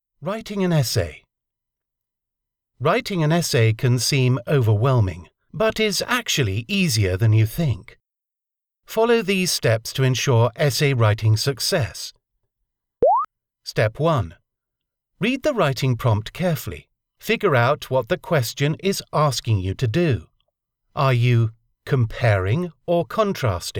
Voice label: Educational